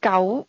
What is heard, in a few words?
"nine", cantonese